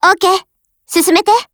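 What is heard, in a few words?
ATTACK, Japanese; UMP45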